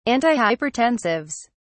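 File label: "antihypertensives", British English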